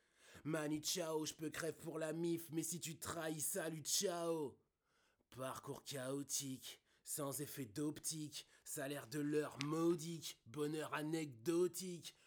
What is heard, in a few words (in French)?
voix rap micro TELEFUNKEN ELAM260